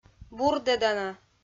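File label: "бурдӧдана", Komi, female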